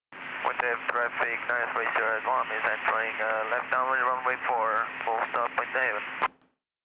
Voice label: chatter; rigs of rods